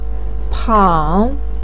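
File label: "pang3", Mandarin pinyin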